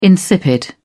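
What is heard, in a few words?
"insipid", British English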